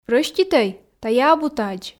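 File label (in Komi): прӧститӧй тайӧ абу тадз, Komi, female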